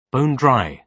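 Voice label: "bone dry", British English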